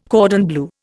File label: "cordon bleu", Indian